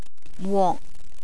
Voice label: "wok", Cantonese; cooking

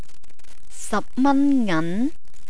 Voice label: "sapmanngan", Cantonese

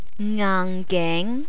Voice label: "ngaanggeng", Cantonese

